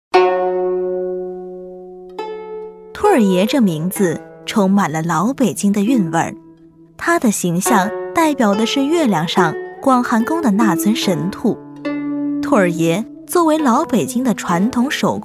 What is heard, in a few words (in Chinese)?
女 专题配音 自然讲述; 老北京兔儿爷; 甜美活力